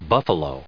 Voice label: "Buffalo", en us